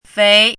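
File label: "féi", Chinese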